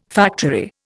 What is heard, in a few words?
"factory", Indian